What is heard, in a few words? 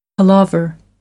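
"palaver", American English; AmericanBritish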